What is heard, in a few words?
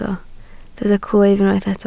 "clwyf", Welsh